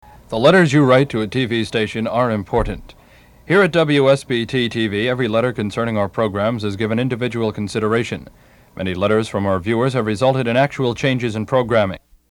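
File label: Announcer Close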